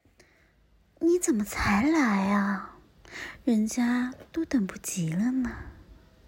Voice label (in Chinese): 魅惑音